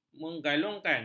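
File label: "mengalungkan", Malay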